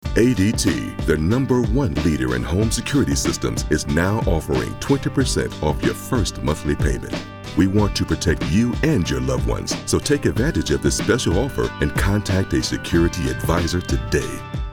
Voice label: Authoritative; ADT

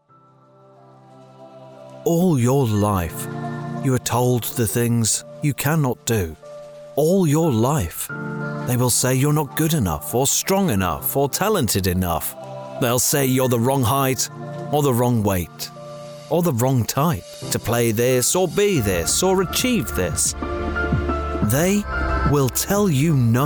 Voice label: Nike Ad

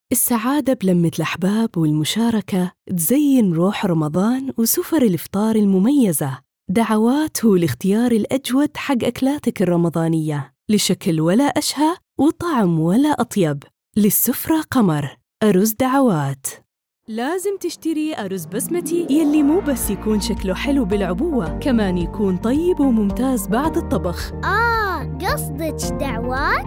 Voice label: Emirati

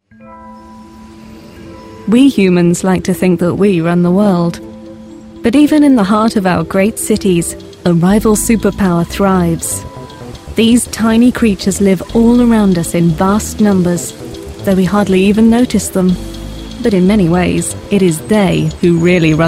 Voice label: narratives

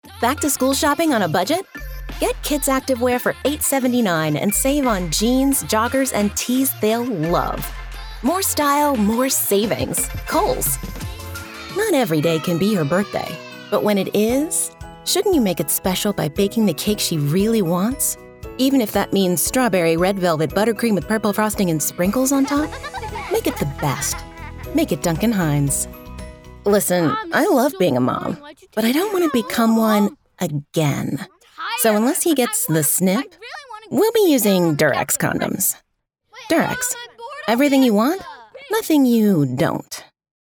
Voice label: Mama Commercial

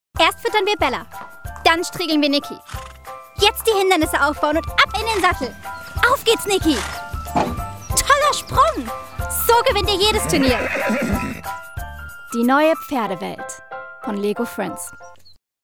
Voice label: COMMERCIAL German; Lego

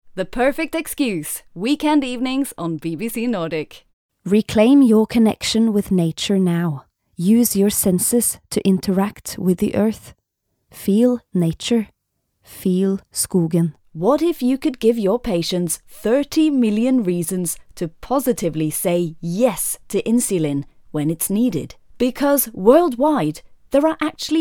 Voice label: Scandinavian Accent Reel

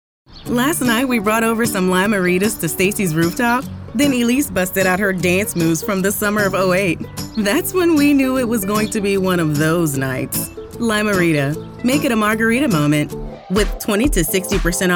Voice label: Commercial Voiceover

